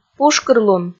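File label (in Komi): "пушкырлун", Komi, female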